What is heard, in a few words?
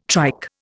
"trike", Indian